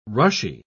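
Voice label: "rushy", British English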